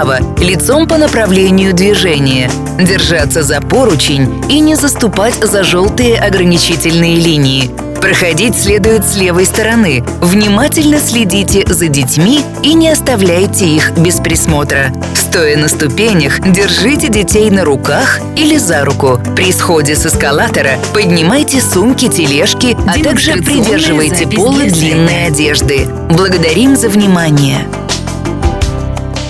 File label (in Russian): Пользование эскалатором, женский